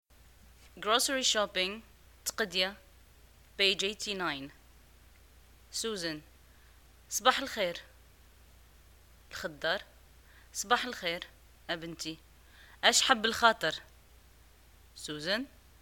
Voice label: dialogue, Arabic, North African, Moroccan

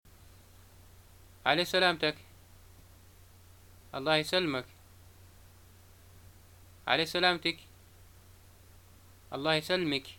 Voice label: greeting arrivals, arabic

